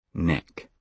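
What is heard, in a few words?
"neck", British English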